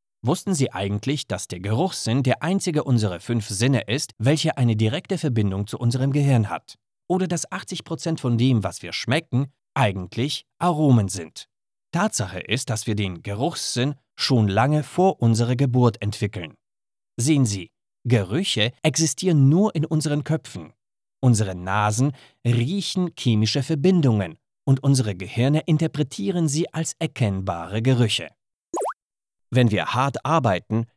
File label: ger narration